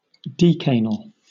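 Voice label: "decanal", British English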